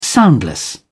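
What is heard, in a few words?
"soundless", British English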